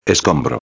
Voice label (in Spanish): "escombro", espanhol; palavra do dia